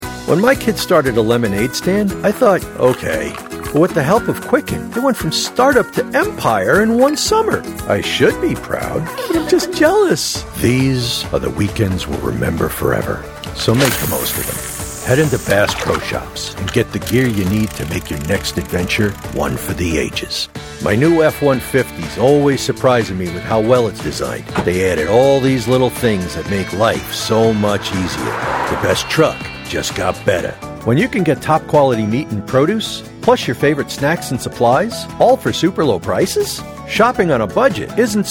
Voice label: Commercial & Narration